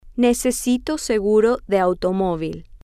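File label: I need auto insurance, Spanish, female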